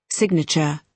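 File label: "signature", British English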